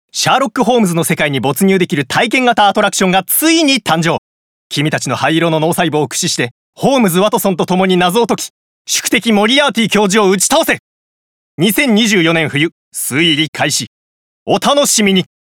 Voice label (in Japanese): アトラクションCM